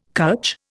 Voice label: "cutch", Indian